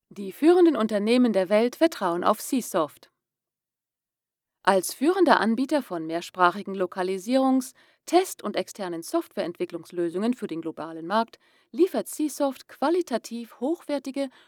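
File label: German Female, voiceover; Multilingual Voiceover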